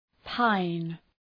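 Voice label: "pine", English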